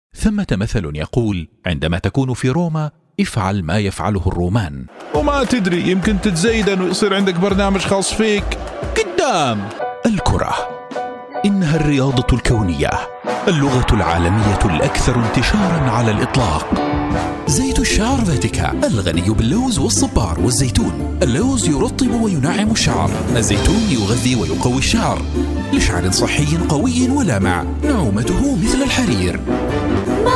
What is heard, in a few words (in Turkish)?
Erkek, Arapça, Kurumsal; Reklam; Eğitim